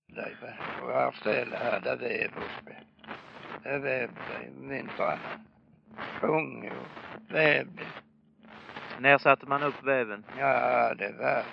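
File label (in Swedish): Örkelljunga Skåne, dialekter; Folkminnen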